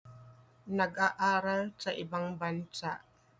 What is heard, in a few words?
Tagalog; studying abroad - nag-aaral sa ibang bansa